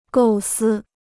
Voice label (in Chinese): "构思", Chinese